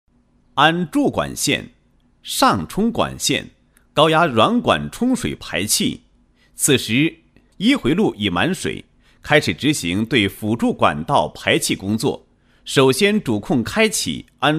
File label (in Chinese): 男 工程解说 配音; 安注管线 上充管线 高压软管充水排气配音